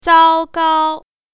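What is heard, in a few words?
"zao1gao1", Mandarin Chinese Tone Pair Drills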